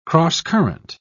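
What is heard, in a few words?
"crosscurrent", British English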